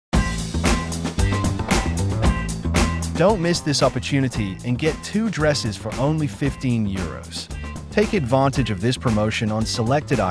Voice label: INGLES; HUMANA